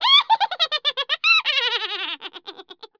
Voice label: witch; BESTSHOT; noisybit